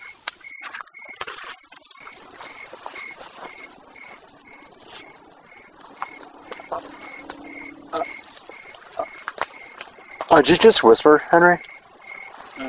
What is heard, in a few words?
whispering then i ask if anyone heard it; Goodrich Cemetery